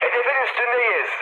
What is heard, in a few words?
near waypoint, mood high, speech tur; War Thunder